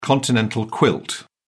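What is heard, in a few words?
"continental quilt", British English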